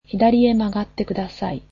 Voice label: Turn left, japanese

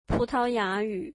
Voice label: Portuguese, mandarin

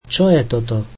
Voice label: What is this, slovak

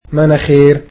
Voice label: "nose", egyptian

